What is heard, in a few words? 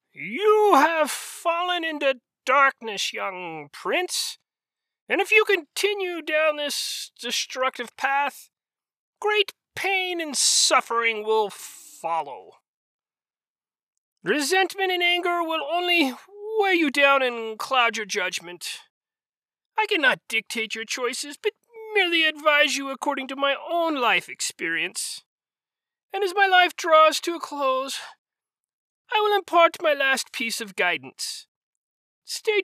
Wise Old Wizard